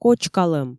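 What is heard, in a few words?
"кочкалӧм", Komi, female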